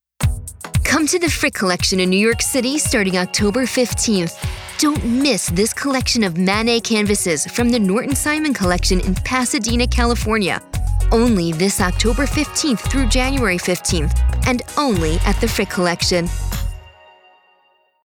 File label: Art Exhibit Promo